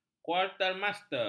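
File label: "kuartermaster", Malay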